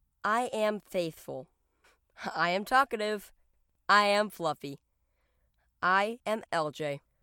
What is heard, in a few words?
kids mini demo